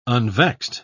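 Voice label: "unvexed", English, British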